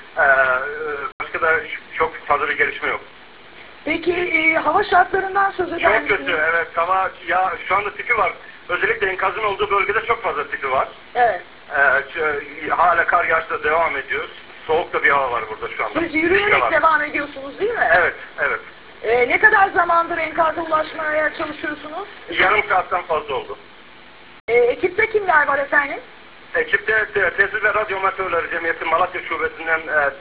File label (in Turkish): Helikopter kazasi tv haberi; Muhsin yazicioglu; TA8N